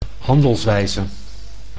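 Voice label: "handelswijze", Dutch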